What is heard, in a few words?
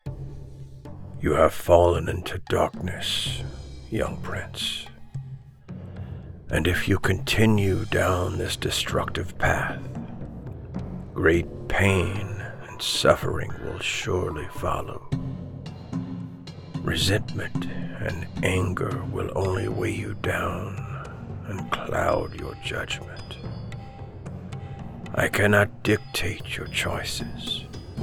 Animation Aged